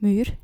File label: "muur", Dutch